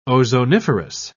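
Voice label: "ozoniferous", British English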